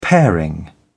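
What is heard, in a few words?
"paring", British English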